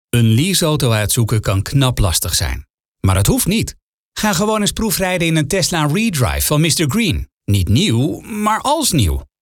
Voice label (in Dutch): commercial conversationeel; mistergreen